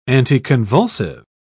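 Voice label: "anticonvulsive", British English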